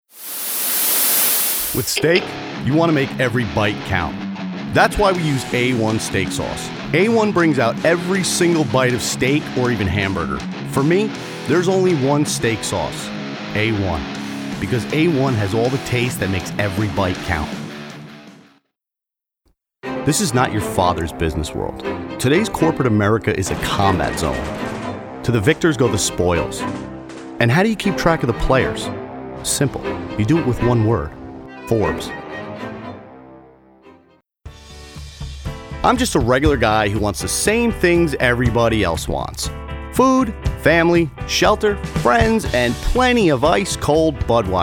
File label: Commercial